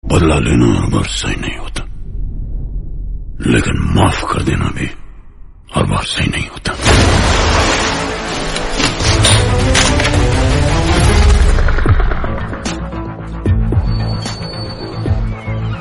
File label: Hindi Dialogue Ringtone